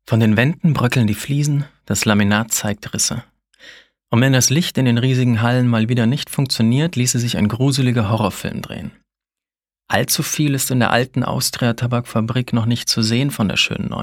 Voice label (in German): Doku; Radio